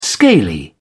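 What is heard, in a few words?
"scaly", British English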